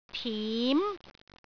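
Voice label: "tim2", Cantonese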